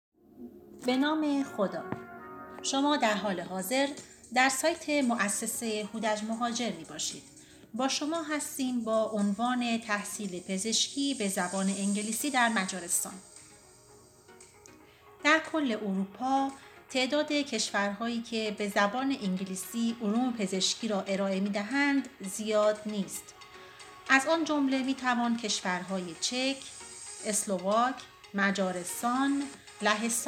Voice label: english